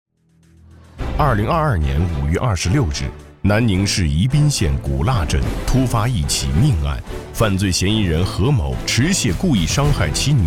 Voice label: 男 专题 警示教育片 案件讲述 温暖舒情; 犬力以赴

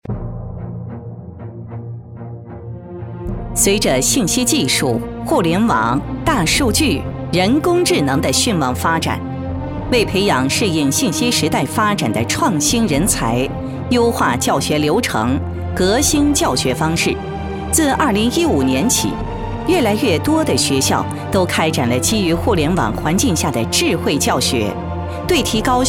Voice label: 女 宣传片 招生宣传 加音乐

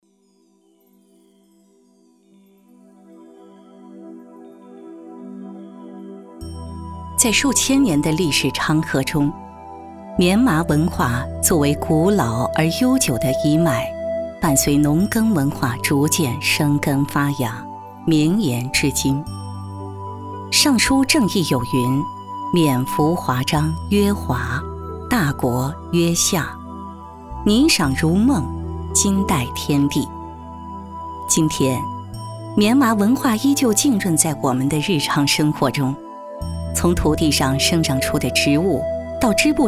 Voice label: 女 宣传片 文化历史; 棉麻文化

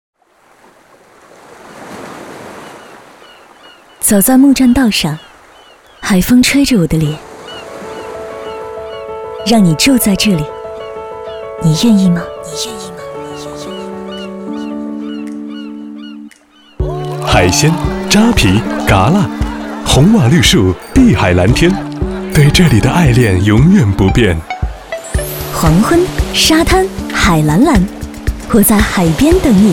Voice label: 女 广告 温暖旁白; 青岛

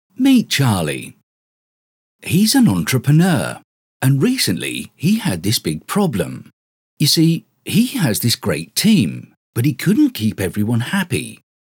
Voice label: 叙述

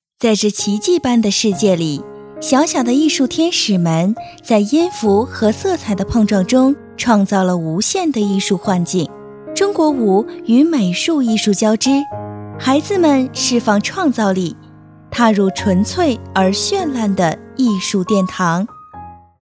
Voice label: 女 专题; 在这奇迹般的世界里

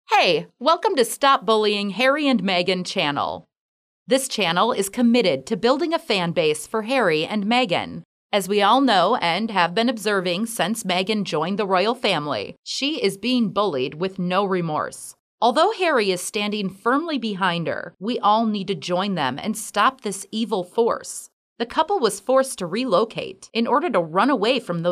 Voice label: 广播 大气

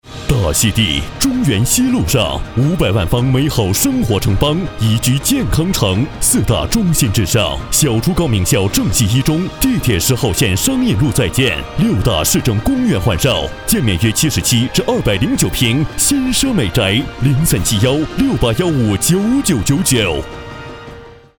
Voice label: 男 电台广告 大气地产

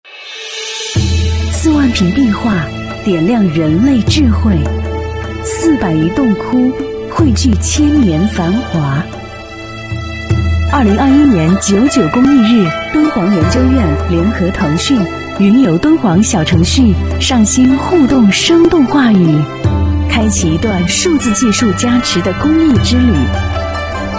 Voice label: 女 宣传片 敦煌壁画

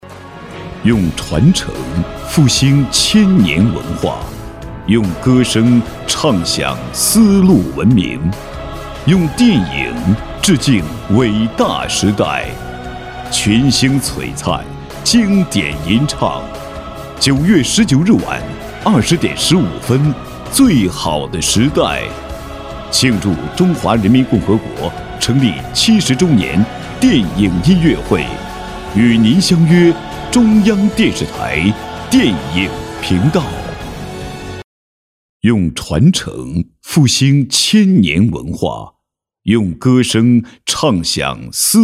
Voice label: 男 广告 电影频道; CCTV6电影频道

